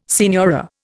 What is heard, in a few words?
"signora", Indian